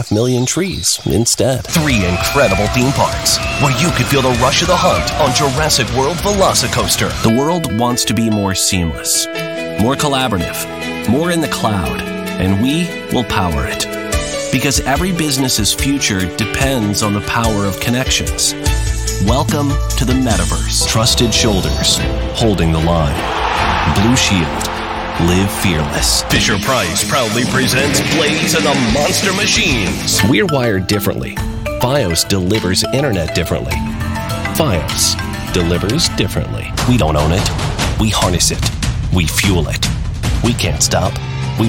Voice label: Commercial